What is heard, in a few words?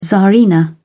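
"tsarina", British English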